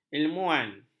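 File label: "ilmuwan", Malay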